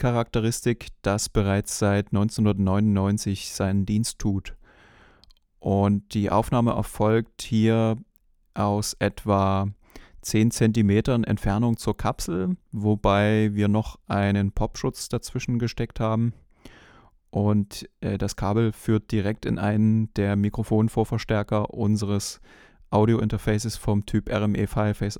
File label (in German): shure ksm32 sprache m